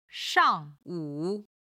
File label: "shang4 wu3", Chinese; 時間に関する中国語表現